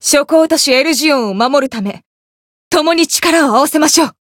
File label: Voice story jp